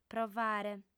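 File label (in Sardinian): "provare", female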